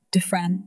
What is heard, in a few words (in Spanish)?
Voice Acting es